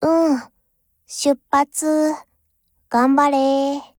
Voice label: JP; G11